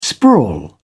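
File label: "sprawl", British English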